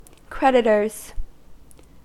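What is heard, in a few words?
"creditors", American English; pronunciations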